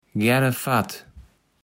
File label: "gänne Fatt", Kaevels Platt